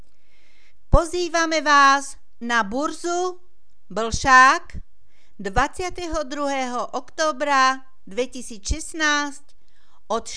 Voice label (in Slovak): Hlásenie miestneho rozhlasu; blsak